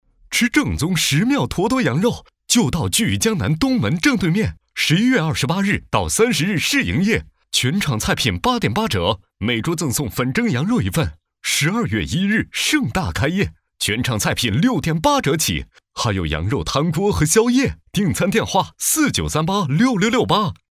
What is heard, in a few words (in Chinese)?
男 促销; 坨坨羊肉